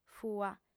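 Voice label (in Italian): "fua", lingua sarda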